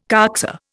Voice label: "coxa", Indian

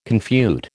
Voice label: "confute", American

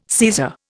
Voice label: "Caesar", Indian

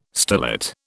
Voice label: "starlet", British

